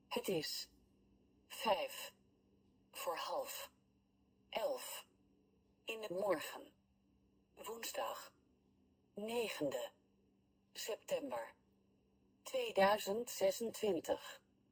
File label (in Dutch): spraakbestand, digitale dagklok met nederlandse; uurwerken; klokken